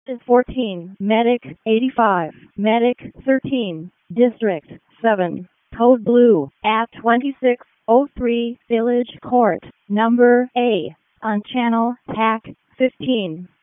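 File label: Raleigh Fire Department ALERT, p25; wake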